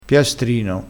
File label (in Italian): "piastrino", crespinese